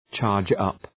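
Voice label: "charge up", English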